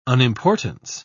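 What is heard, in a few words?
"unimportance", British English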